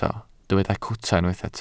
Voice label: "cwta", Welsh